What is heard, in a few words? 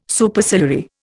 "Superciliary", Indian